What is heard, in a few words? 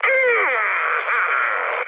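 Italian damaged pilot mood med, speech; War Thunder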